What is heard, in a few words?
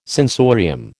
"sensorium", American